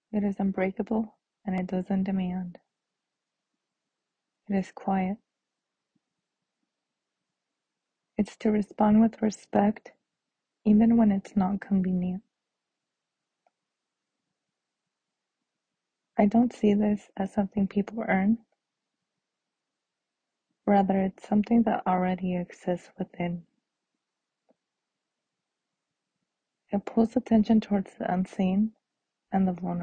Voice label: Voiceover